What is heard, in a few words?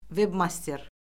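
"veb-master", Azerbaijani; Orfoqrafiya Lüğəti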